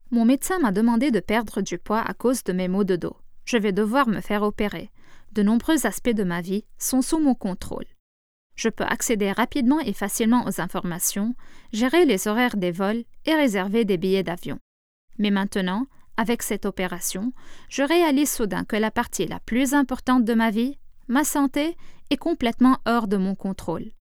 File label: French